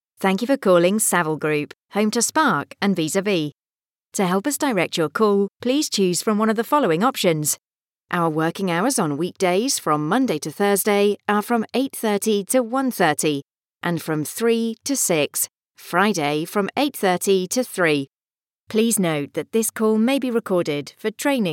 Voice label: english british ivr